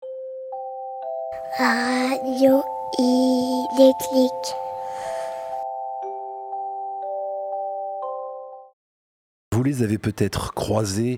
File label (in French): Micro Trottoir